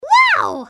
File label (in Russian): multjashnyj vau; мультяшные звуки wow